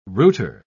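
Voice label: "rooter", English, British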